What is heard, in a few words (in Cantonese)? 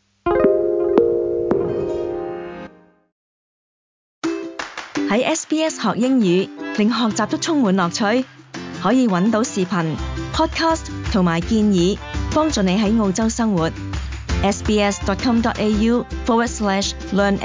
cantonese; gourmet express